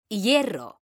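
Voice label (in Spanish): "hierro", Spanish, female; mekanta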